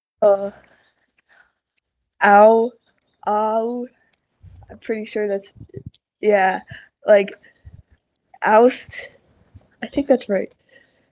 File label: voice message